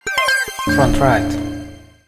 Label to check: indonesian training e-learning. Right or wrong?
Wrong